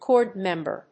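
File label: "chord member", English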